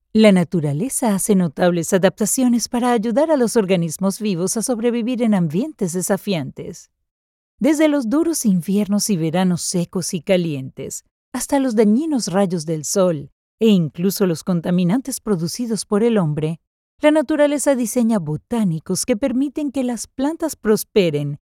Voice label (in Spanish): Spanish nature